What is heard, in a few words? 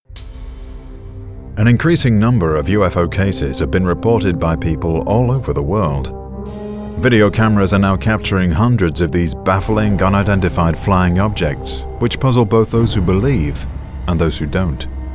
UFO Documentary